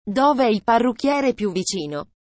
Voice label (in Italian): parrucchiere ita